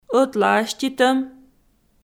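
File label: "ӧтлааститӧм", Komi, female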